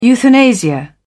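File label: "euthanasia", British English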